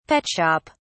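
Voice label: "pet shop", English, British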